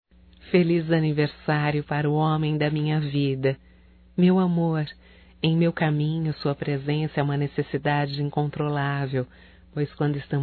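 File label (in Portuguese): romântico female, Telemensagens Aniversarios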